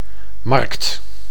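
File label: "markt", Dutch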